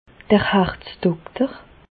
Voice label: "harzdokter", Bas Rhin; medecine; cardiologue

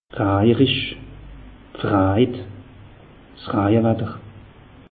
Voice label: "raje risch", alsace; meteo

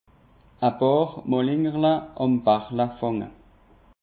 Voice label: "malingerle", alsace; peche

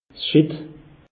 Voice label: "schitt", alsace; meteo

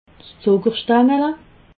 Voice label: "zuckerstangele", alsace

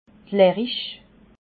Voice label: "lerrich", Alsace

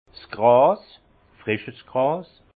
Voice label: "gras", Alsace; herbe; vin

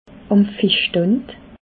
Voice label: "am fischstand", alsace

